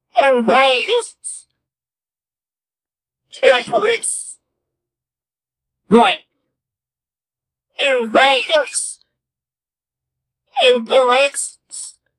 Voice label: mermaid says bbrrtt and s